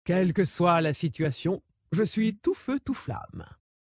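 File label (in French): French Female Blague; ToutFeuToutFlammes; Dracthyr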